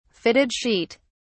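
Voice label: "fitted sheet", British English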